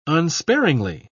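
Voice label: "unsparingly", British English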